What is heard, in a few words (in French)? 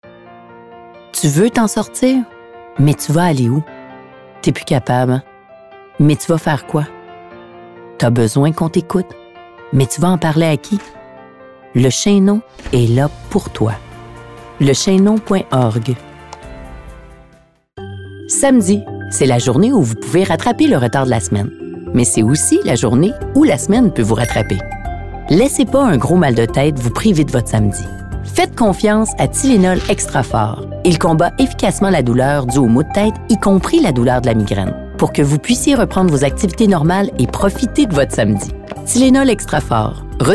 voix publicitaires